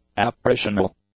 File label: "apparitional", English; adjectives